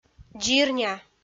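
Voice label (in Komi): "дзирня", Komi, female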